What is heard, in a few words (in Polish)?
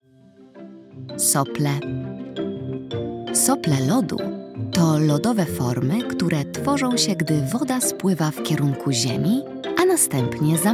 narracja; czasopismo mis; zima